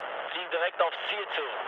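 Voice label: German near waypoint mood low, speech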